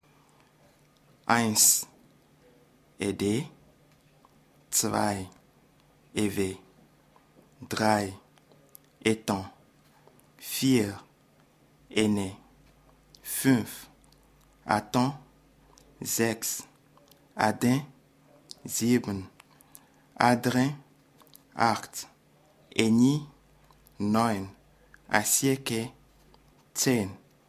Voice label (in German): Deutsch Ewe